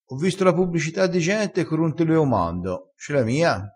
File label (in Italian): "sberbera", crespinese